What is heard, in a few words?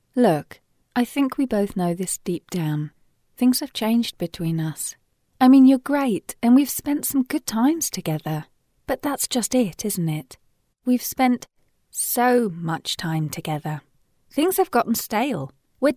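English British, female, voice over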